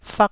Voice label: "fak1", taiwanese syllables